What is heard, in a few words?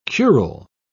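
"curule", British English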